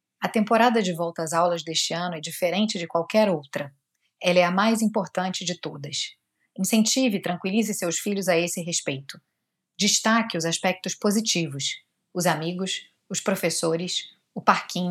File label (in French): Message PORTUGAIS